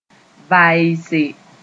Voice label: "vaeese", Mooré